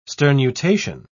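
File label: "sternutation", British English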